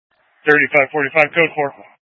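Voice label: Police Dispatch, Scanner audio; Police 1 Dispatch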